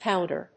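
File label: "pounder", English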